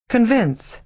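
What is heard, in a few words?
"convince", American English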